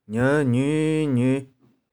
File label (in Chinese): "nieu5niu5niu5", 玉環